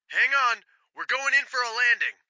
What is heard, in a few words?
Radio Chatter